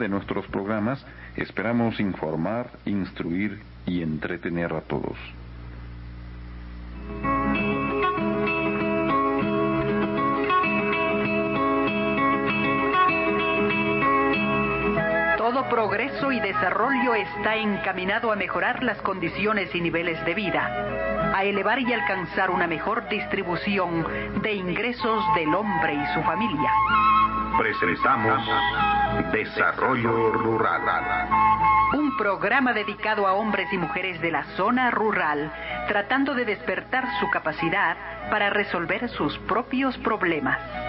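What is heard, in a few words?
Quito 6050 kHz local service, Ecuador; HCJB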